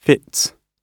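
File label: "fits", British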